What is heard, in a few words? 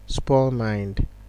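"spoil mind", Nigerian Pidgin, male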